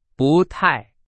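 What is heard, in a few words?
Chinese, vocabulary; hsk2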